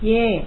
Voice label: "Yes", es, Adult, Male; ko